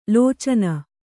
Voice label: "ಲೋಚನ", Kannada

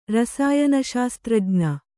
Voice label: "ರಸಾಯನಶಾಸ್ತ್ರಜ್ಞ", Kannada

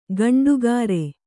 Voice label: "ಗಂಡುಗಾರೆ", Kannada

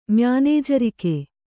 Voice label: "ಮ್ಯಾನೇಜರಿಕೆ", Kannada

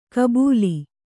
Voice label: "ಗಬ್ಬಲು", Kannada

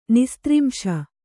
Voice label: "ನಿಸ್ತ್ರಿಂಶ", Kannada

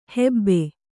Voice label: "ಹಬು", Kannada

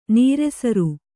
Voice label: "ನೀರೆಸರು", Kannada

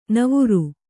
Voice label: "ನೆವರು", Kannada

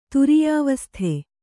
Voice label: "ತುರೀಯಾವಸ್ಥೆ", Kannada

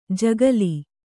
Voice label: "ಜಗುಲಿ", kannada

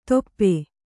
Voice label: "ದೊಪ್ಪೆ", Kannada

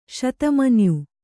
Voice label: "ಶತಮನ್ಯು", Kannada

